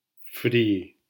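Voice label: "fri", Spanish syllable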